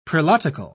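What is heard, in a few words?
"prelatical", English, British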